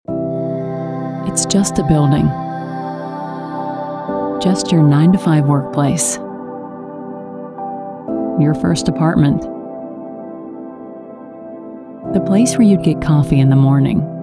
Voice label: commercial